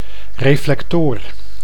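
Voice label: "reflectoor", Dutch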